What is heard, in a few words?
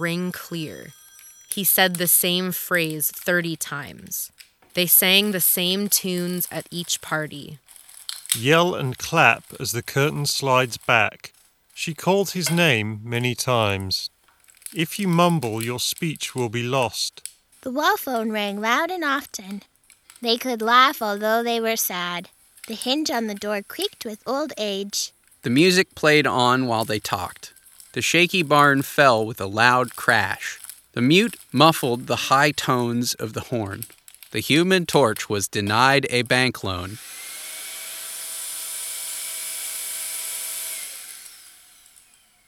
SteelSeries Arctis Nova 3 USB Office microphone sample